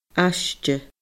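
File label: "aiste", Scottish Gaelic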